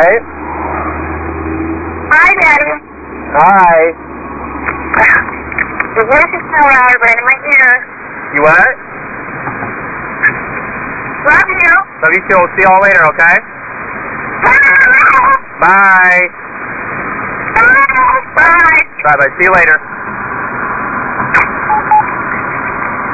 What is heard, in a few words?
saying by to miles from window on phone